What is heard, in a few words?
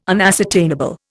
"unascertainable", Indian